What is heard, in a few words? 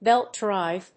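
"belt drive", English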